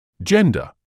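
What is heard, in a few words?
"gender", British English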